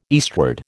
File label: "eastward", American